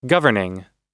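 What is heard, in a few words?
"governing", American English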